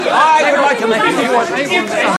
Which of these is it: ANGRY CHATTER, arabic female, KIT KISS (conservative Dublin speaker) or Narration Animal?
ANGRY CHATTER